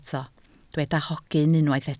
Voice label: "hogyn", Welsh